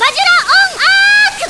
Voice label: voice high; kishin douji zenki